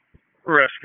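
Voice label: EMS Dispatch, p25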